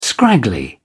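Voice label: "scraggly", British English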